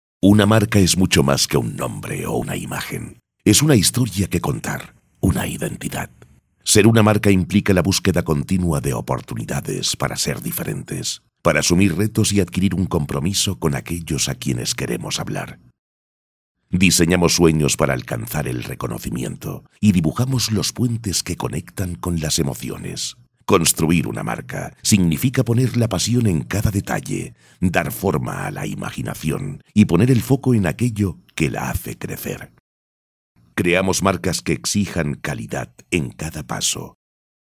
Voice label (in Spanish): Masculino; Sonoarte